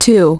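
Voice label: "two", English; iOS 4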